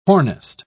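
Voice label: "hornist", British English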